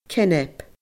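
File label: "cainb", Scottish Gaelic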